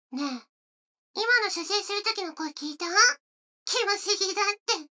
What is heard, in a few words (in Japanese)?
女の子 台詞, ASMR